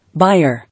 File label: "Buyer", English